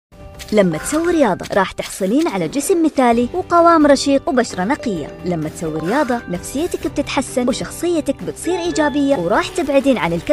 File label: Saudi